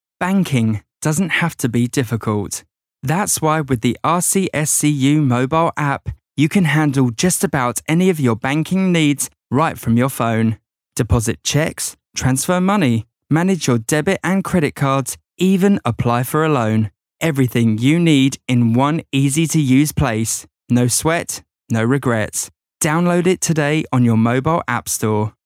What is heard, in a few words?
Professional